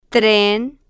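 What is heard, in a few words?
"tren", Spanish, female, slow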